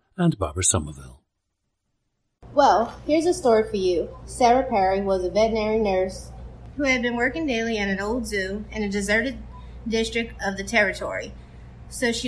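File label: louisiana, International Dialects of English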